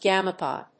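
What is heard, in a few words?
"game pad", English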